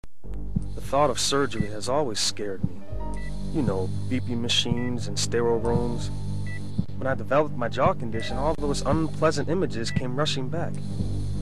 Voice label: COMMERCIAL